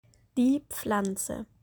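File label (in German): "Pflanze", German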